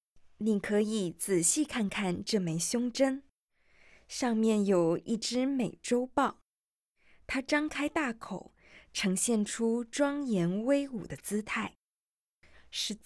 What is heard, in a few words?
Mandarin, audio guide